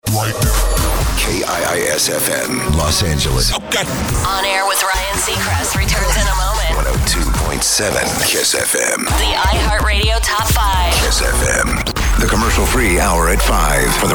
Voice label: radio chr, radio imaging

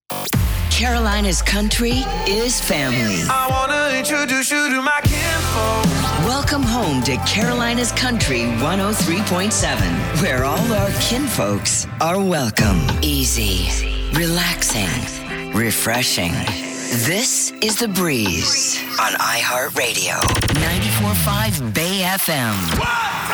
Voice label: radio women, radio imaging

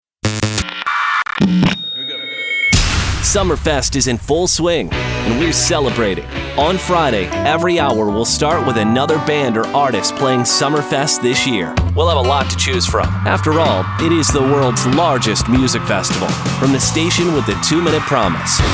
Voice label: radio aaa, radio imaging

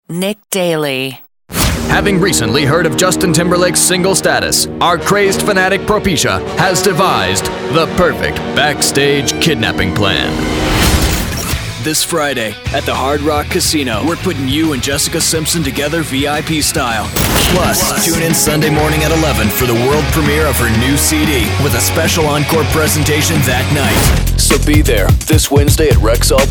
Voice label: radio imaging

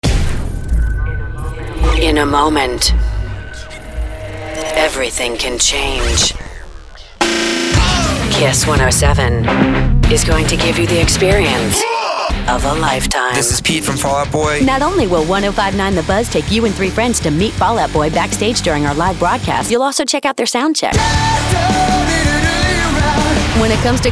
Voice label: radio; imaging

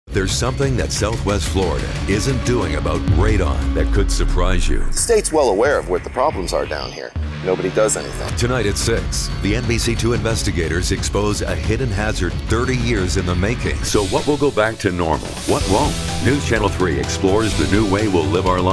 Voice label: tv affiliate men

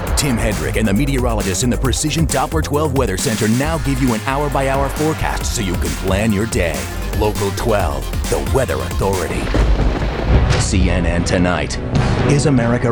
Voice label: News

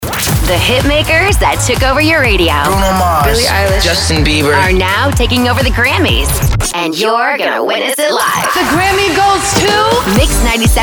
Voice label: radio imaging women hot ac

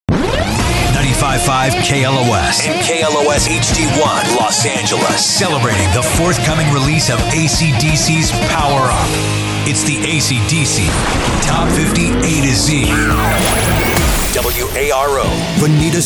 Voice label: radio imaging, classic rock

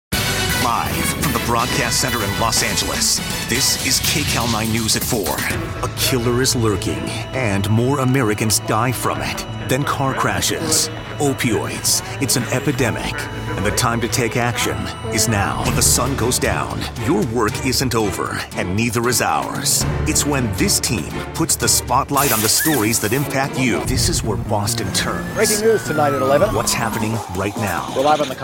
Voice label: tv affiliates men

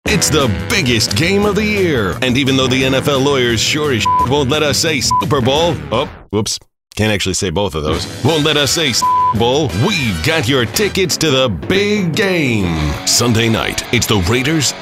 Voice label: radio men sports, radio imaging

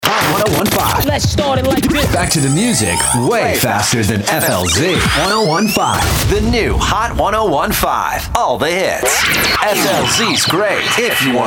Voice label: radio; imaging

